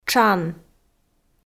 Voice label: "тшан", Komi

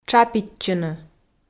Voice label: "тшапитчыны", Komi

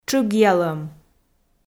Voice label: "тшыгъялӧм", Komi

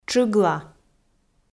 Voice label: "тшыгла", Komi

